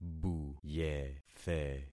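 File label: "buyethe", concatenated syllables; Affixing experiment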